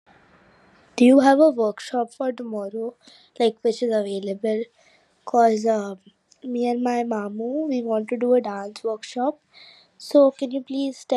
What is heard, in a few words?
voice message